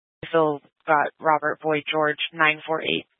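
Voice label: Sheriff Dispatch, scanner audio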